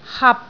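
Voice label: "hap6", Cantonese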